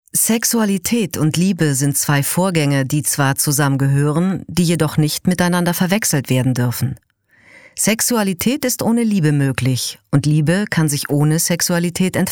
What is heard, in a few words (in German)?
Doku; LOFT VOICES